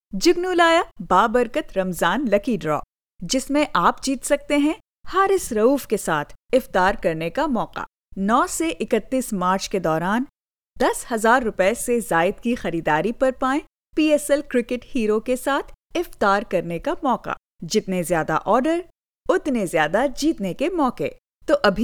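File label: Urdu, Pakistan, female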